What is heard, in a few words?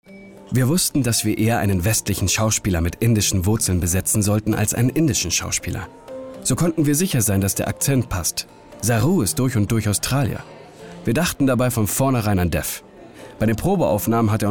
voiceover; lion